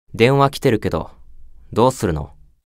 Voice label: Call Notification Voice; Belphegor